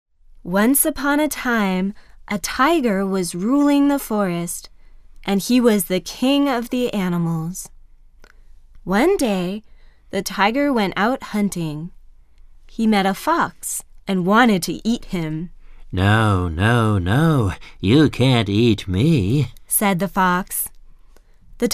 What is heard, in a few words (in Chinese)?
外籍男, 角色, 女声男声, 童话故事